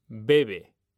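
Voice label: "bebe", Spanish, male; mekanta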